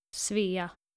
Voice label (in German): "svea", skandinavische maedchennamen